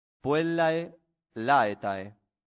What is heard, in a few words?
"puellae laetae", Latin; santillana